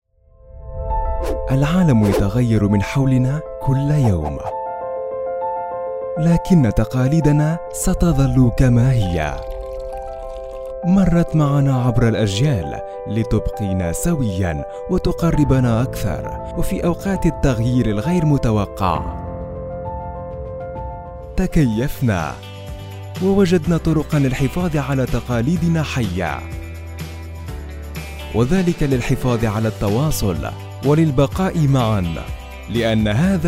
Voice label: arabic commercial